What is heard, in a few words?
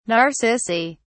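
"narcissi", British English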